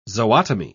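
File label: "zootomy", British English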